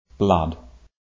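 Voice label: "blood", English, RP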